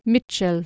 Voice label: "micel", Anglo Saxon